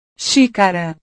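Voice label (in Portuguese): "xícara", Portuguese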